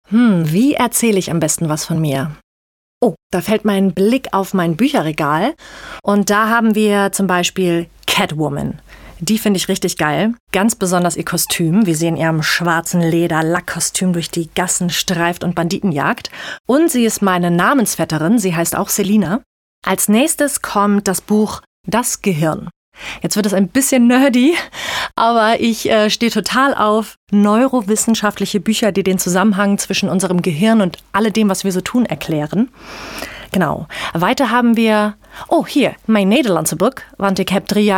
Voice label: Vorstellung natuerliche Stimme

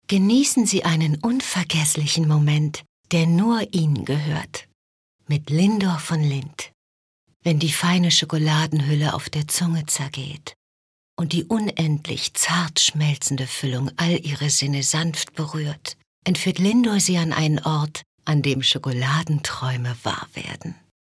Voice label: sprachproben sehnsuechtig

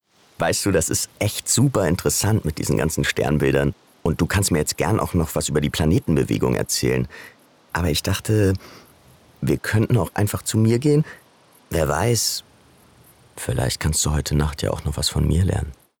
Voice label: Sexy

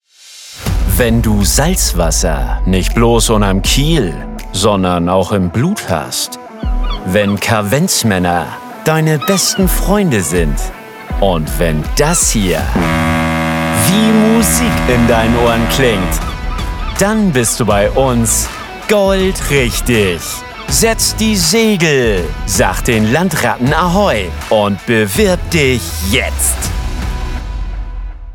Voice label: Recruiting Hamburgisch

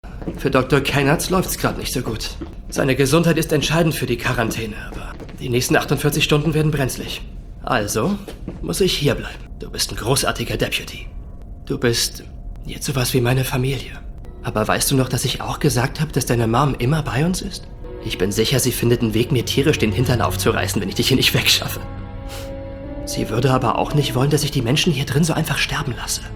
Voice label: Synchronsprecher; Deutsche Synchronkartei

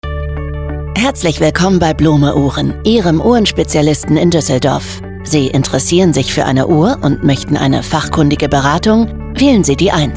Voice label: Telefonansage; Blome Uhren Ddorf

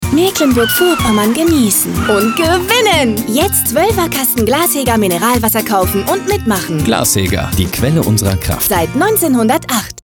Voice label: Funk Spot Reminder; Sendekopie; Glashäger

